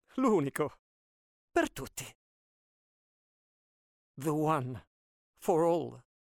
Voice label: english podcasts

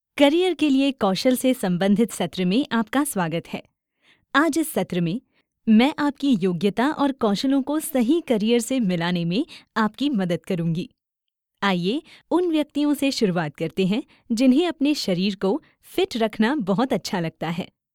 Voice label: hindi corporate videos

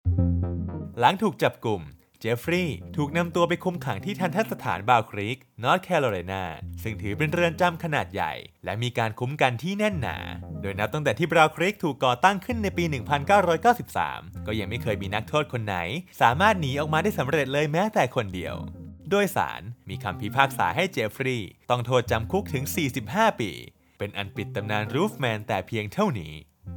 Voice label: thai podcasts

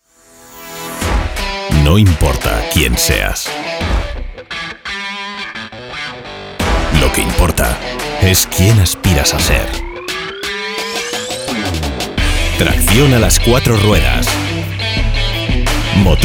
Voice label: spanish castilian promos

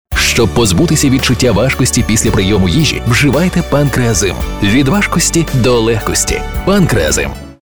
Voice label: ukrainian commercial

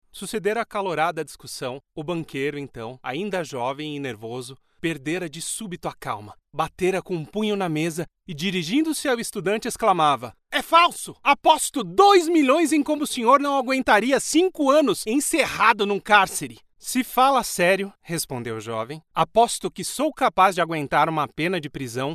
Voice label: portuguese brazilian audiobooks

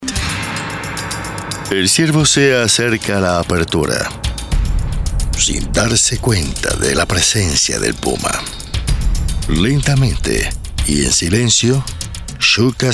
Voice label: spanish latin american documentaries

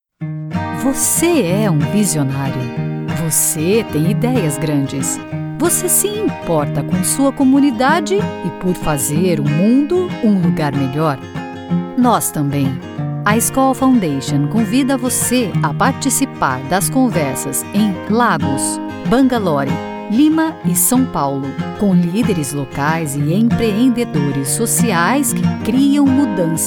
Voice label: portuguese brazilian corporate

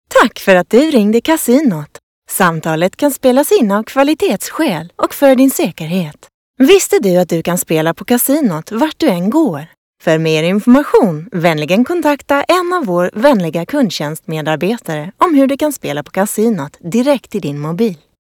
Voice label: Casino IVR

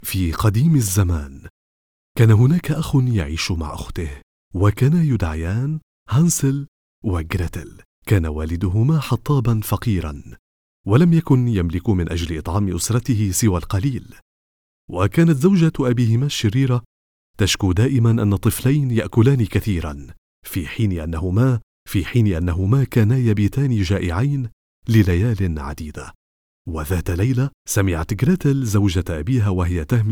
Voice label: Arabic audiobooks

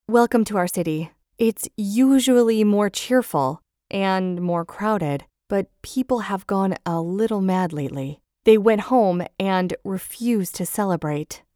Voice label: english american video games

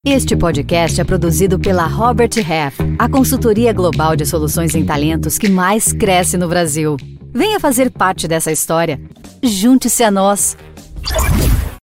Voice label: portuguese brazilian podcasts

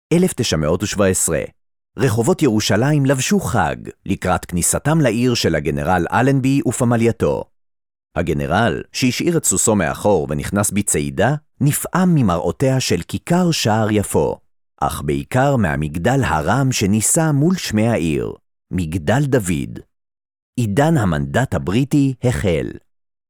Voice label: hebrew documentaries

